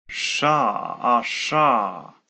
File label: Voiceless alveolar retracted sibilant